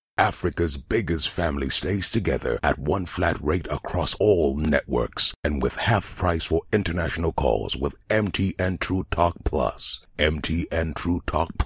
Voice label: English Africa